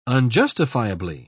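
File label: "unjustifiably", English, British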